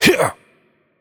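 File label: Attack Heavy, French; VO; bloom minigame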